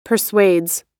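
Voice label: "persuades", American English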